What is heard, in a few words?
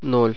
"zero", russian